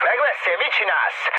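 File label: Hungarian player killed ally mood med, speech; War Thunder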